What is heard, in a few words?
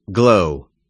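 "glow", English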